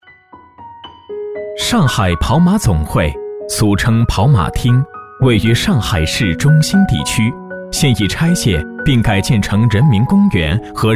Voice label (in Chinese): 叙述介绍; 上海跑马总会